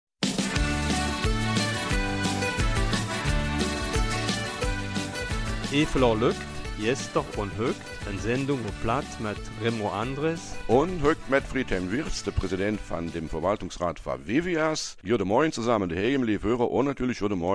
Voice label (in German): Eifeler Mundart; Seniorenheime im Süden der DG